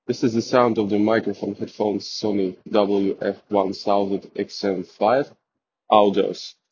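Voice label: sony wf 1000xm5 eng street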